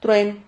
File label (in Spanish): "truen", Silabas